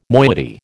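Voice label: "moiety", American English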